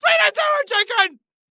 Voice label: Cartoon Loud Voice; Sweet And Sour Chicken; AdobeCC

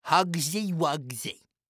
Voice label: Cartoon Voice Nerdy; Huggsy Wuggsy; AdobeCC

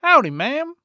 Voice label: Human Cowboy Say Howdy Maam

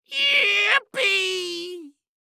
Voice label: Cartoon Voice "Yippee" Western; AdobeCC

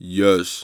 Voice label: Human Male Yes Deep Yes

